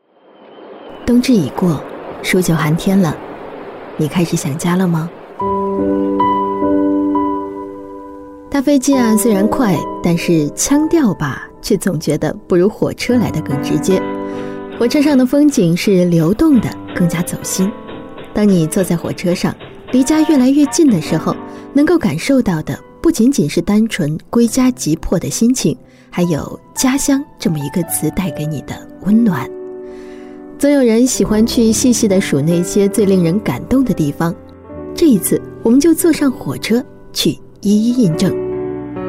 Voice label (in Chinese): 女 旅行电台节目; 普通话